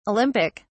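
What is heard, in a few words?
"olympic", British English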